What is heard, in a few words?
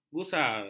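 "gusar", Malay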